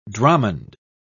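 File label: "dromond", British English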